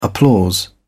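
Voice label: "applause", British English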